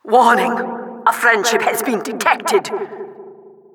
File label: announcer mission begins 60s